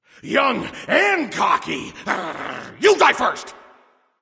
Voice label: taunt directed; fenrir; apollo

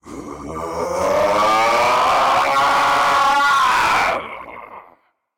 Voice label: battlecry; smite; osiris

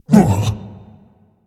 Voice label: surprise; smite; kukulkan

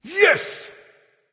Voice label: emote yes; smite; vulcan

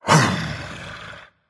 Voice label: taunt berserker; cuchulainn

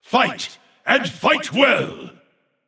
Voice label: vox emote; smite; ra; voicepack

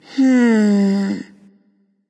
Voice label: thinking; smite; artemis

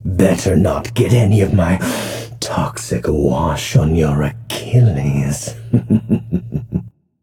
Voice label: taunt directed; smite; hebo; achilles

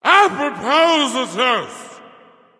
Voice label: joke tipsy; bacchus

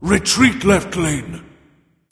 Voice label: vox retreat; smite; xingtian

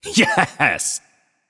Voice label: "yay", voicepack; smite; merlin

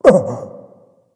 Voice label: surprise; Smite; cabrakan

